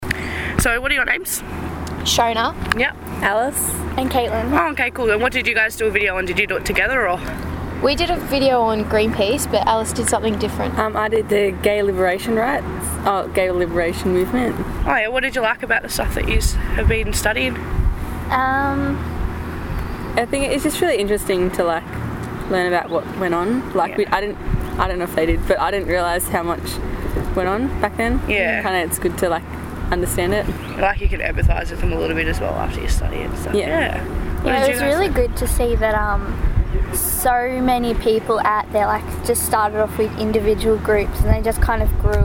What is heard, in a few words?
vox pop; social movements